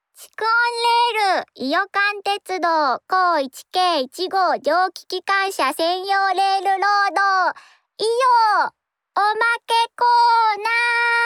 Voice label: インタビュー; 降幡愛さんインタビュー

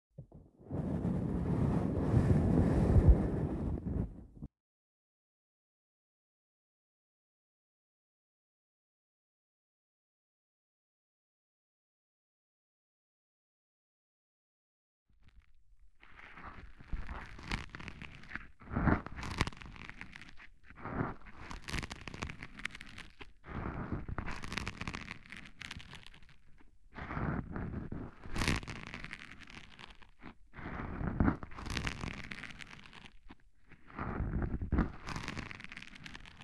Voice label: 耳かき左, ASMR; ASMRver

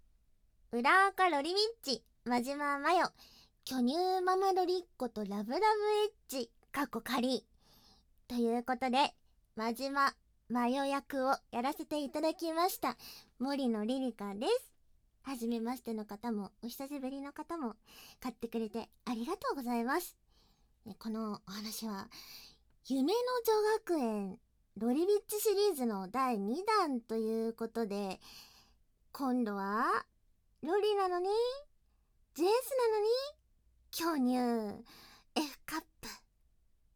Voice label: フリートーク

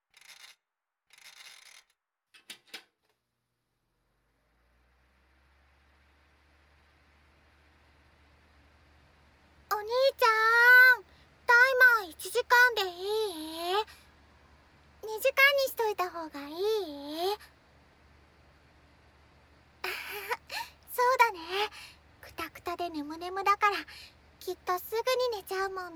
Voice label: 添い寝; 一緒にお昼寝（添い寝）

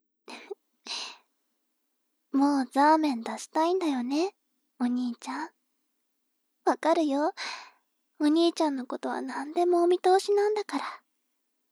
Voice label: 妹 台詞

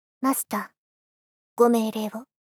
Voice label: "マスター ご命令を・・・" 少女×低音域

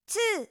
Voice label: 「つー」, 明るい声

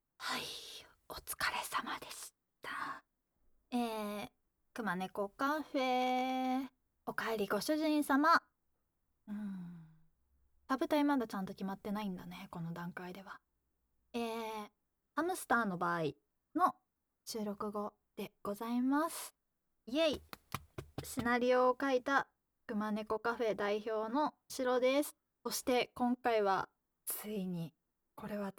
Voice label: フリートーク

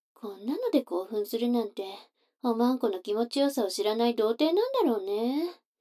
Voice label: 女の子 台詞, ASMR

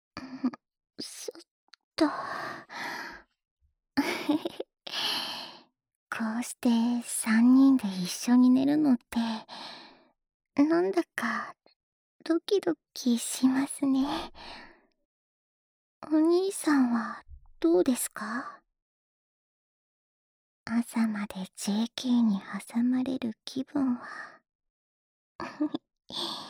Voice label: 安眠誘導ボイス, ASMR; 凛南＆クリスVer

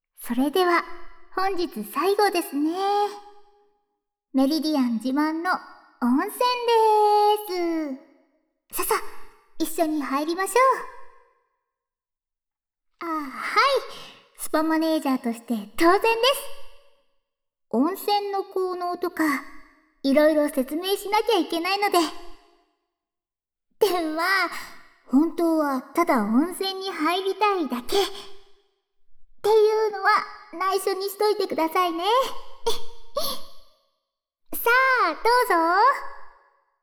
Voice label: 温泉でリラックス ～温泉混浴ASMR～

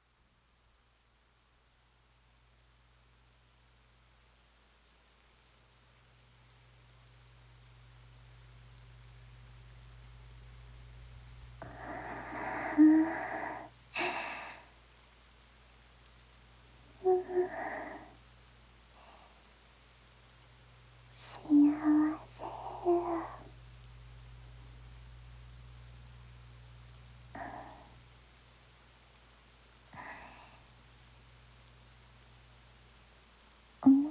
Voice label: 告白添い寝ウィスパー, ASMR

